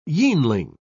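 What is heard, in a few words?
"yeanling", British English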